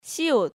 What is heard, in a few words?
"Siot", Korean